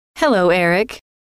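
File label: "hello", female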